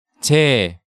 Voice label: "Jae", Korean; Hangeul sounds

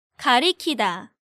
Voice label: "Garikida", Korean

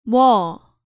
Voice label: "mwo", Korean

